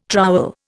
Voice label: "trowel", Indian

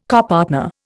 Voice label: "copartner", Indian

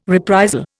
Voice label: "reprisal", Indian

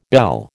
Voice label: "belle", American

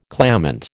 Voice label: "clamant", American

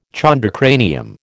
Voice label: "chondrocranium", American

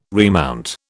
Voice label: "remount", British

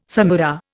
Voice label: "samara", Indian

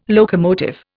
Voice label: "locomotive", Indian

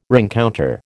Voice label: "rencounter", American

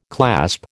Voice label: "clasp", American

